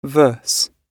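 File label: "verse", British English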